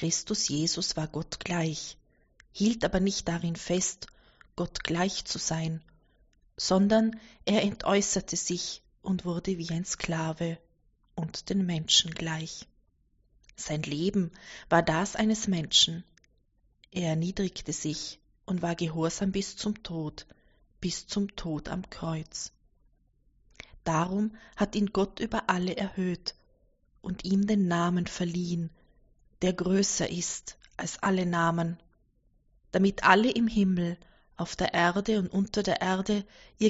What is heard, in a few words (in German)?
2. Lesung Palmsonntag